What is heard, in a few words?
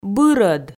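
"бырӧд", Komi, female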